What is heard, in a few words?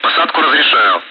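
Speech, Russian, air traffic control; cleared to land; DCS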